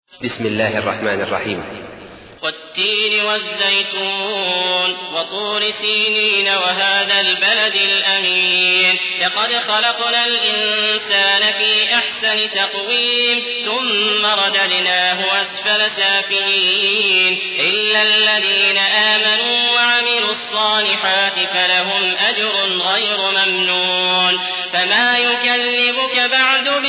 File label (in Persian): ترتیل; عبدالعزیز الأحمد; سوره تین